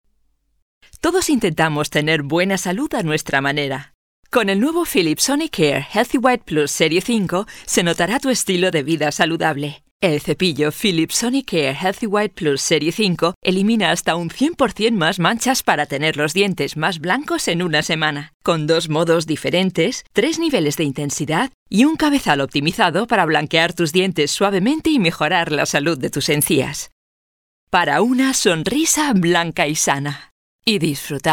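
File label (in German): Spanish Fremdtake Freundlich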